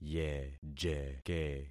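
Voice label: "yejeke", concatenated syllables; Affixing experiment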